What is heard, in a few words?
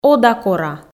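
"ода-кора", Komi, female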